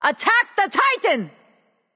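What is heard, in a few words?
vox attack male; smite; awilix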